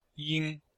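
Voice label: "ying1", Chinese; Cours-de-Chinois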